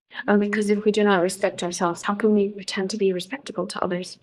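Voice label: Voice Acting es, female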